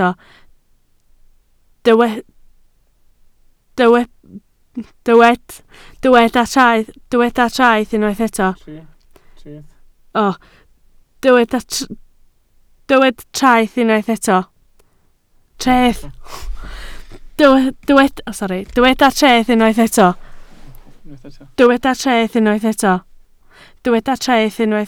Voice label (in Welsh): "treth" Welsh